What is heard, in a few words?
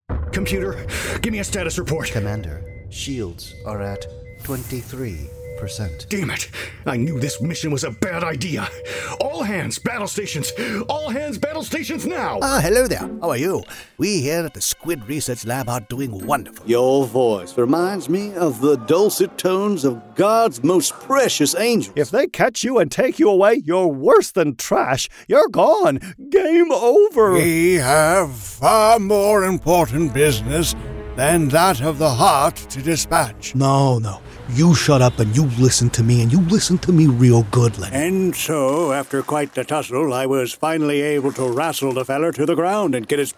Character Demo, voices